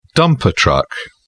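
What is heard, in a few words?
"dumper truck", British English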